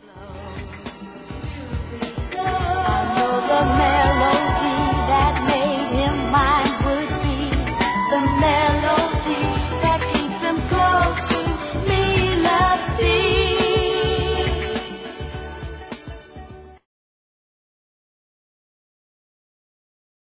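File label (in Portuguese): ANO NOVO NEUTRO, Feminino; Telemensagens; Natal e Ano novo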